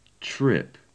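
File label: "trip", British English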